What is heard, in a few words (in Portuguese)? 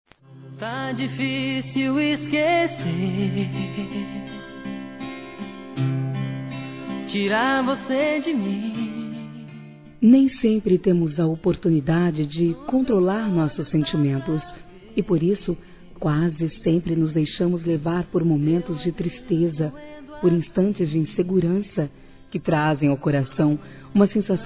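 SAUDADE ROMANTICO, Feminino; Telemensagens; Saudades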